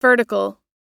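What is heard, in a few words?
"vertical", American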